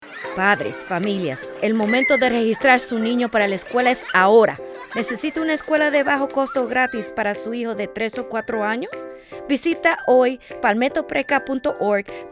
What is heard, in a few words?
Radio Spanish; PALMETTO PRE-K